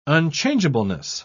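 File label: "unchangeableness", British English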